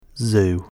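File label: "zoo", English word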